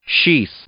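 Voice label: "sheath", English; noun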